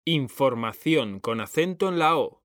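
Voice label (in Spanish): "información", Spanish, male; mekanta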